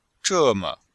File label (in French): "zhe4me5", chinois; Cours de Chinois